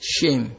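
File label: "shame", Nigerian Pidgin, male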